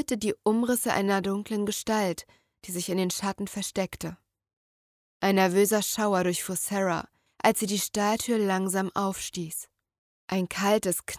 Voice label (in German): hoerprobe krimi